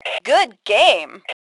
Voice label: Compliment Good Game, Female; T2 Female 01

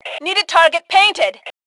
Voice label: Target Need, Female; T2 Female 01

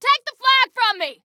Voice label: Flag Take, Female

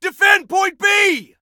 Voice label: DEFEND, Soldier Male; Soldier Male 02

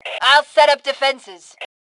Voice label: Defenses, Female; T2 Female 03

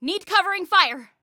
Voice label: Need Cover, Female

